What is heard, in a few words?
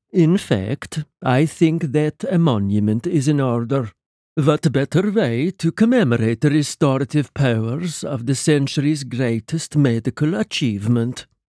swedish, accents dialects; Sweden